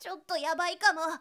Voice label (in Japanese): shonen tyottoyabaikamo